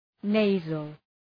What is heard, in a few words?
nasal